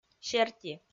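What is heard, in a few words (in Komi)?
"серти", Komi, female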